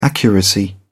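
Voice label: "accuracy", British English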